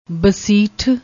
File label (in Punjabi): "basith", Punjabi